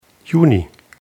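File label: "juni", German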